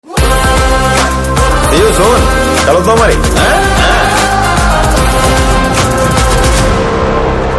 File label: Dialogue Ringtone; Raviteja